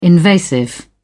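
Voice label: "invasive", British English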